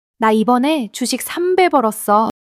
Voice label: excitement, monologue